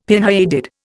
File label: "pinheaded", Indian; Dictionary